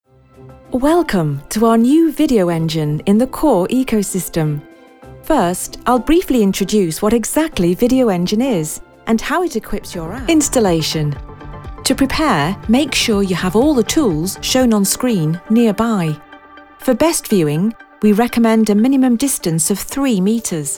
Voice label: Corporate